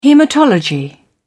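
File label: "hematology", British English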